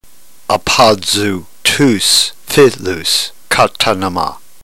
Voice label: drill, ntgreek

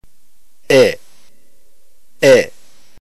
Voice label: epsilon, ntgreek alphabet phoneme

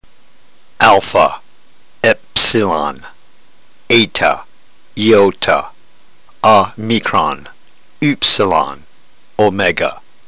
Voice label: drill, ntgreek

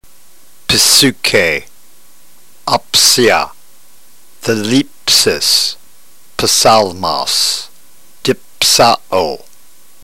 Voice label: drill, ntgreek

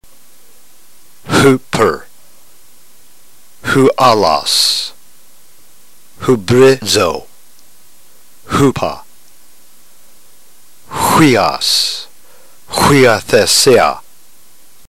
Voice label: drill, ntgreek

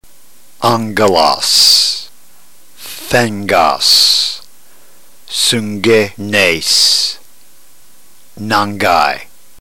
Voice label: drill, ntgreek

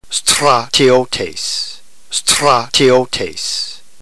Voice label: "stratiotes", Greek

